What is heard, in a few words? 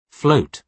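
"float", British English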